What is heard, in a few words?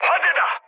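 Korean on fire mood high; speech; War Thunder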